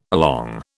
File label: "along", British